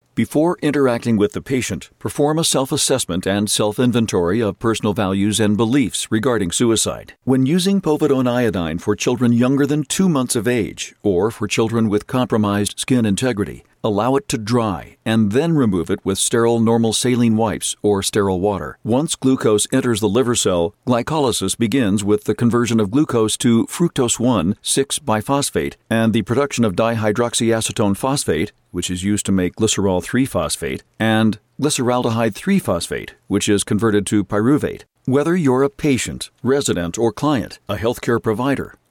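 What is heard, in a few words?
American English, Medical; voice demos; GoLocalise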